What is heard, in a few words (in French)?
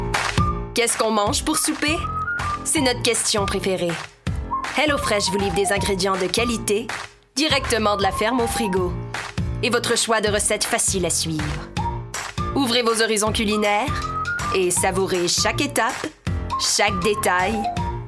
Publicites